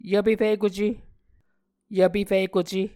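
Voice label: "yvpefikuce", Muscogee word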